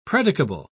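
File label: "predicable", British English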